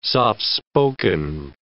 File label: "soft-spoken", English